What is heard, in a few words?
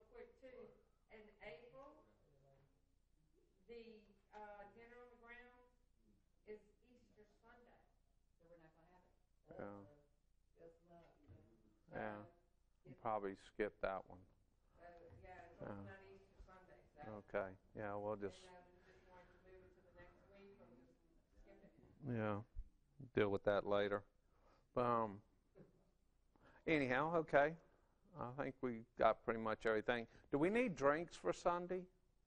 English